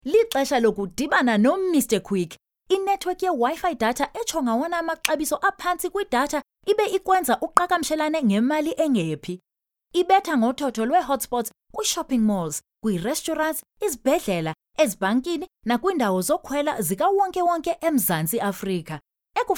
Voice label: Xhosa Conversational

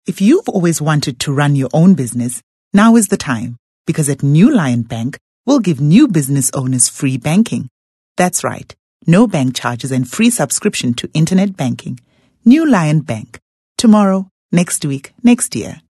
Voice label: English Corporate

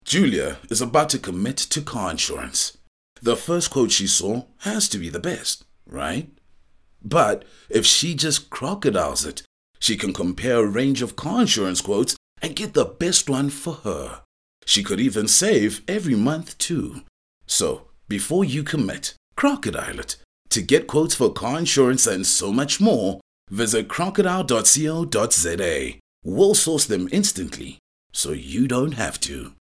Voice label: English Corporate; OSM Talent

